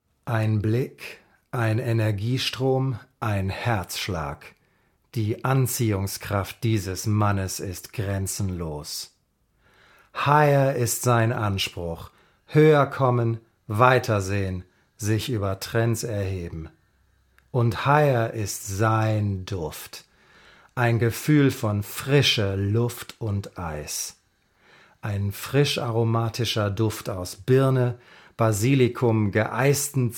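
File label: German Commercial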